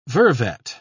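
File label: "vervet", British English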